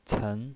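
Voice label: "cen", Mandarin Chinese, second tone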